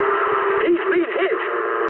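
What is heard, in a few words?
English aircraft destroyed mood med; War Thunder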